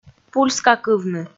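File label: "пульскакывны", Komi, female; kpv audio dict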